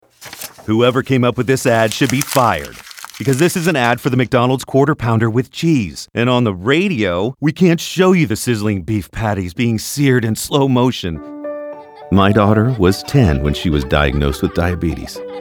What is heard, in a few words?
Commercial